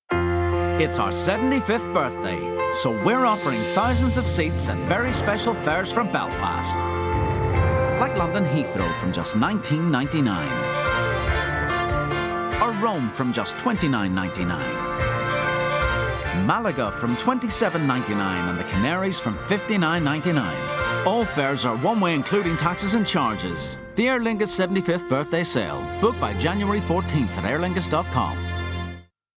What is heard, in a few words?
Commercial; Aer Lingus